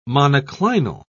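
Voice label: "monoclinal", English, British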